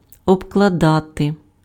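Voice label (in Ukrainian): "обкладати", Ukrainian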